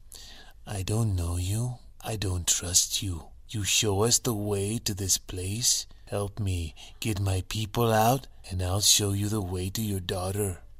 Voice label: Mexican